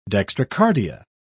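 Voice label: "dextrocardia", British English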